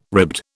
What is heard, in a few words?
"ribbed", British